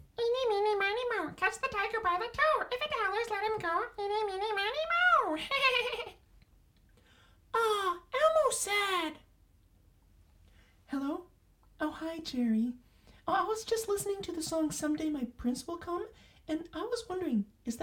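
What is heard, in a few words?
character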